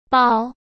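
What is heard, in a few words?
"煲", Mandarin Chinese; chinese flashcards; hsk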